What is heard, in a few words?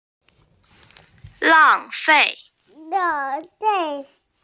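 "lang fei", Mandarin Chinese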